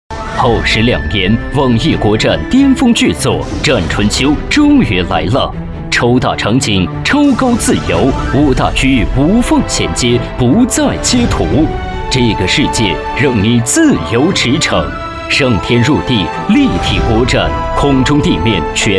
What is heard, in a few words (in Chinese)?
大气 游戏宣传; 网易战春秋